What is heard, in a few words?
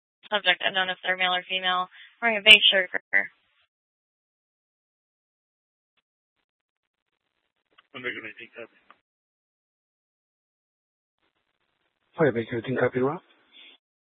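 police department Dispatch, scanner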